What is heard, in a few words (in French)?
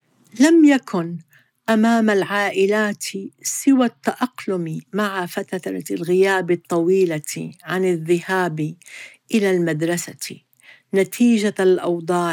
Message ARAB